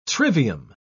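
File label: "trivium", British English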